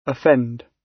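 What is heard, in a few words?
"offend", British; Crime and punishment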